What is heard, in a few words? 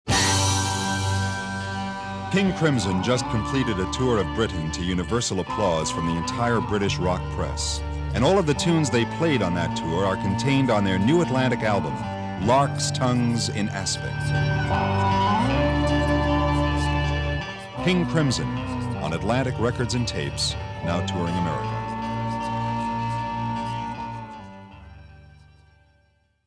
Radio Advert